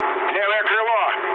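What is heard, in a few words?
Russian left wing mood low, speech; War Thunder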